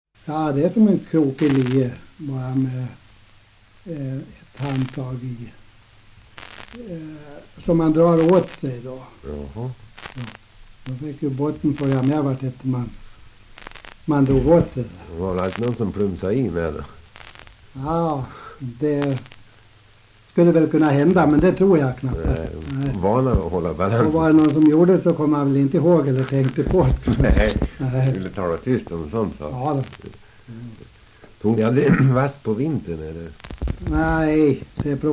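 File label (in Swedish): Västerås-Barkarö Västmanland, dialekter; Folkminnen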